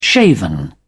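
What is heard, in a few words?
"shaven", British English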